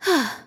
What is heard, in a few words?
healed, RPG Voice; RPG Voice Starter Pack